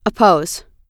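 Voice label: "oppose", American English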